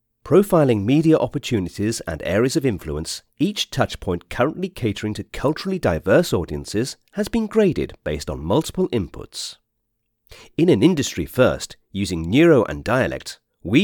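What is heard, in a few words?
elearn english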